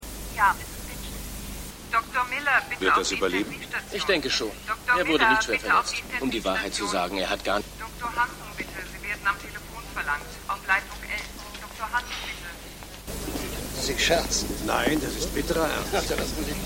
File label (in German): Sekretaerin Durchsage, Mann An Bar